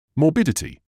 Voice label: "morbidity", English

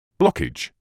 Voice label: "blockage", medical english uk; ˈblɒk.ɪdʒ; BLOCK-ij

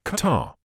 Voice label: "catarrh", medical english uk; kəˈtɑː; kuh-TAH

